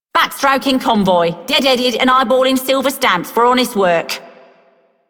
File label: Female Radio, Female One, en; Dialog; Warframe; Solaris Venus